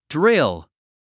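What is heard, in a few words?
"drill", English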